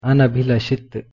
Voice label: "anabhilashit", Hindi